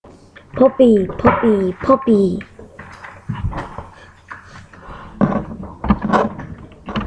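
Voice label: "puppy", spoken word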